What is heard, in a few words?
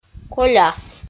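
"коляс", Komi, female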